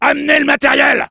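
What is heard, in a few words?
move up, French; Soldier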